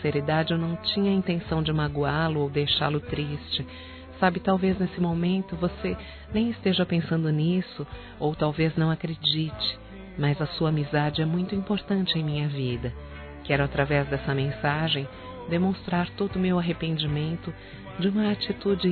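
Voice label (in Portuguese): Amigo, feminino; Telemensagens; Desculpas; Telemensagens 2017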